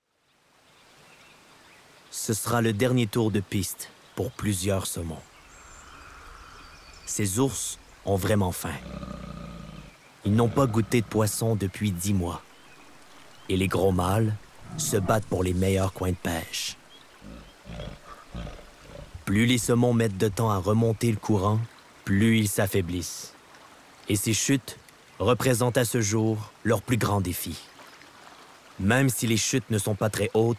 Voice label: Narration; AgenceBD

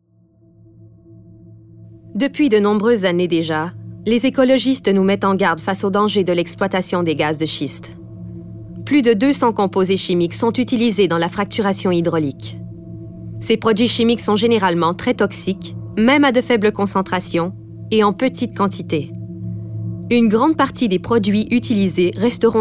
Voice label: NARRATION; Gas de schiste

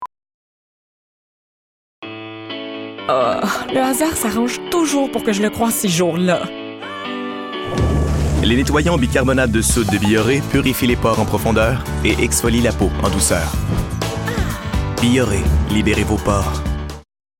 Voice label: Biore Baking Soda FR

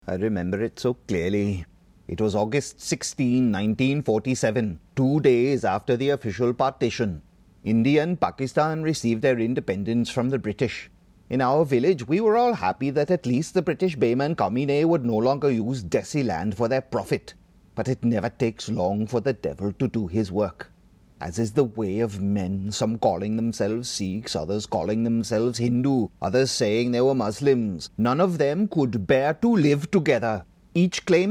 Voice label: PAKISTANI ACCENT

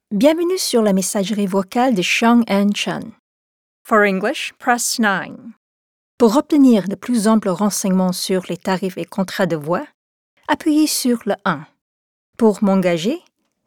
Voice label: Phone

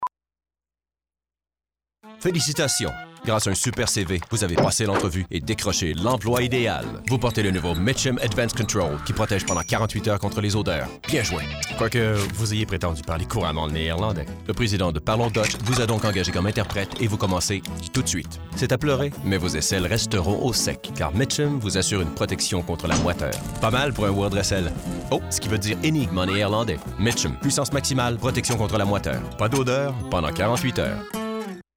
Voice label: French TV; MITCHUM; Lies

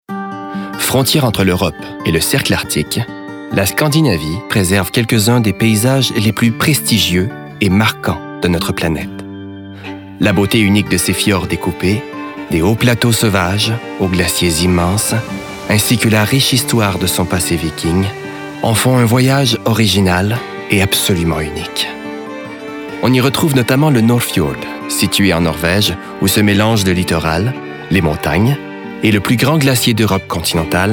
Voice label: Narration

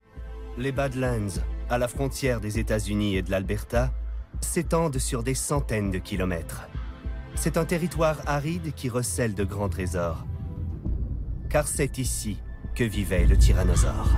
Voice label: Narration

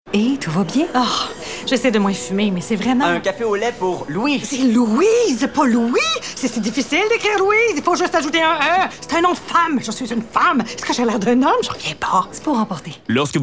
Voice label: French Full Mix; NOVARTIS; THRIVE

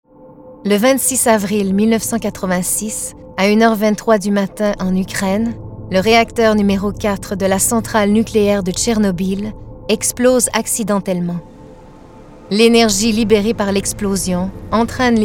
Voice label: NARR; Tchernobyl

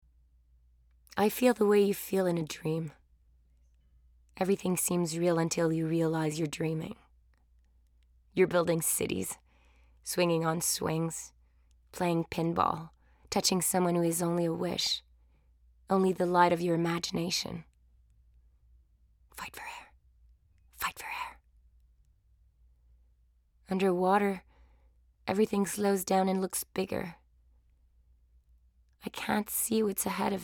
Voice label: English; TAB; Crystal

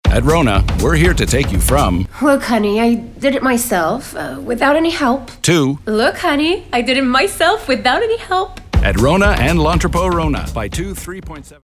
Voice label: commercial; Rona

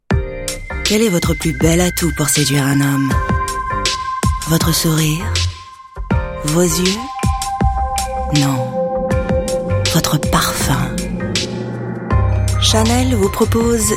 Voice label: parfum fr

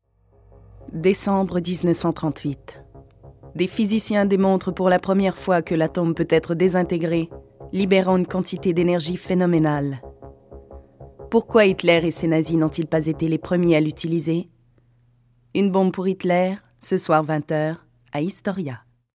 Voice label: narration; Historia

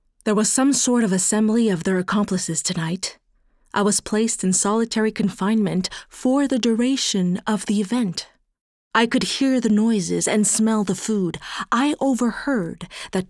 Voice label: AudioBook; Cat Diary

